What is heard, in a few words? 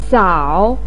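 "sao", Mandarin pinyin, tone 3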